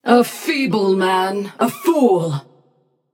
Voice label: taunt passion; chaac; achilles